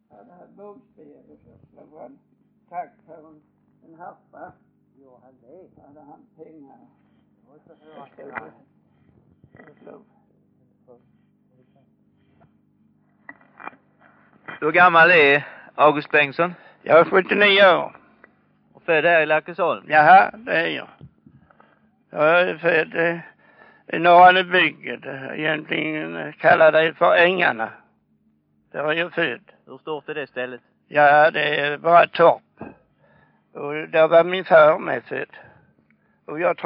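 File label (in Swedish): Örkelljunga Skåne, dialekter, svenska sagor; Folkminnen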